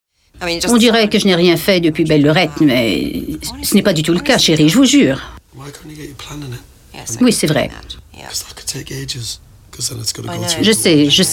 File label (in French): SURIMPRESSION VOCALE Voix snob mature, Voix mature, têtue et snob